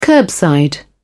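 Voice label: "curbside", British English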